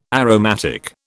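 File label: "aromatic", British English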